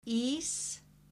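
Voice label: "Ís", Icelandic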